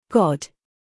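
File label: "god" British English, female; everyone-can-use-english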